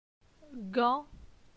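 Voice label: "Gan", French; phonetique; la syllabe an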